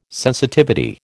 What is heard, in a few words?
"sensitivity", American